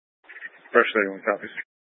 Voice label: Fire Department Dispatch